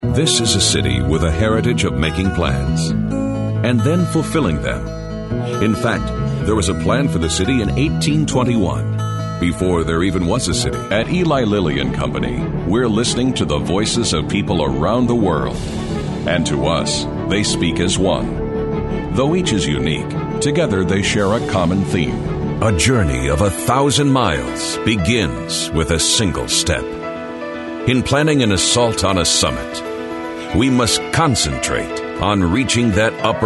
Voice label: Industrial Narratives